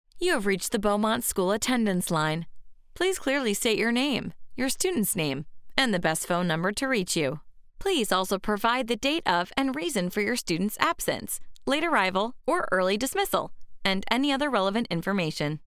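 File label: english american interactive voice response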